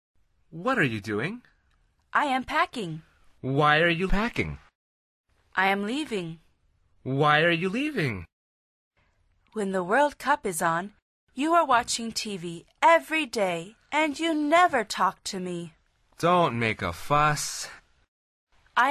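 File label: dialog, Conversación en Ingles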